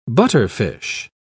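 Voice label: "butterfish", English, British English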